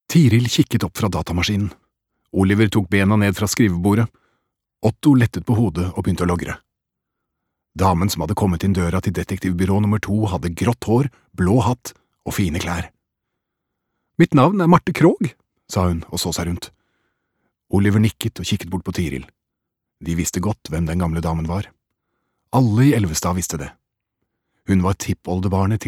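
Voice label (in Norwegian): barnebok, Norwegian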